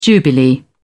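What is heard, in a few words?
"jubilee", British English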